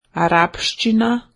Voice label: "Arabic", Slovene